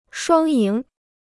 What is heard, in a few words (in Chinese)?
"双赢", Chinese